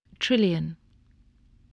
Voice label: "trillion", voice

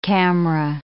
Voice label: "camera", English

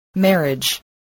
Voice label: "marriage", spelling voice

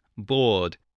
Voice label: "bored", spelling

